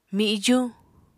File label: "mijuñ", Apatani